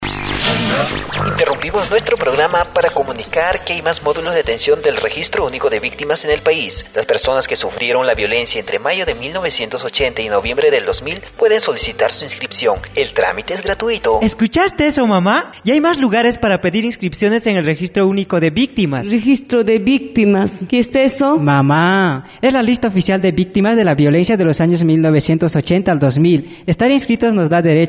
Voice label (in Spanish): SPOT GENERAL; MODULOS RUV